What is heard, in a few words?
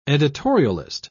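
"editorialist", British English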